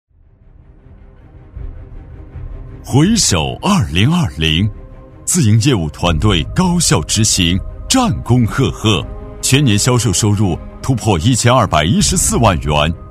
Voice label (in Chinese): 男 颁奖 大气力度